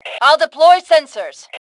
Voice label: Deploy Sensors, Female; T2 Female 03